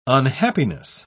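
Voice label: "unhappiness", British English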